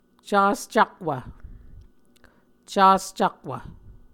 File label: "cascakwv", muscogee